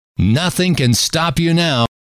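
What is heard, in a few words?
nothing can stop you now, male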